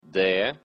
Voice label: belarusian alphabet d